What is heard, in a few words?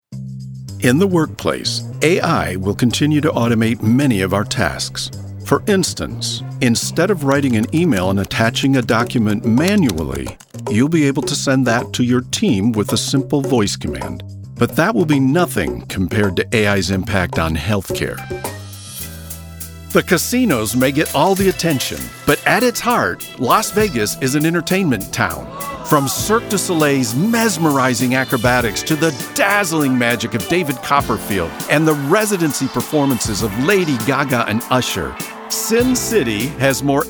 Narration